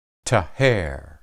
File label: "taher", Hebrew